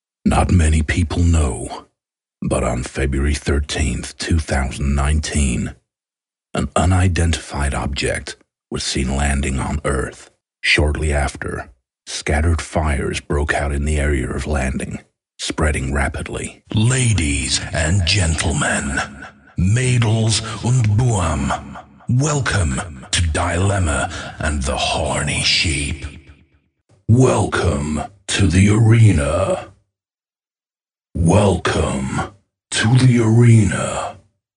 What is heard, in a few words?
US male; lektoring